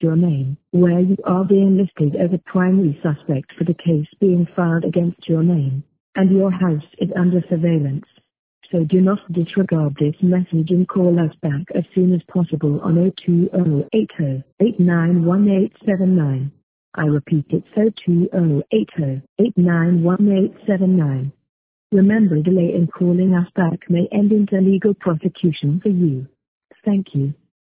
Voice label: voicemail